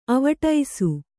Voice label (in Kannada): "ಅವ್ವಡಿಸು", Kannada